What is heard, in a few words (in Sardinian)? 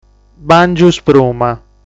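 "Bàngiu Spruma", Sardinian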